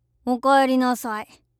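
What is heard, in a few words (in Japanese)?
"おかえりなさい" Japanese; 挨拶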